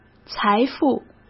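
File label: "财富", Mandarin Chinese; hsk; chinese-flashcards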